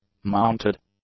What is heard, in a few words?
"mounted", English; adjectives